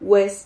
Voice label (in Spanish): "ues", Silabas